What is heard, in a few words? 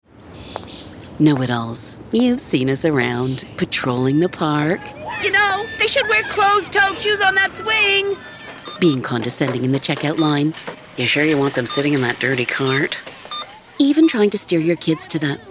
English Radio; LGR; Know-It-Alls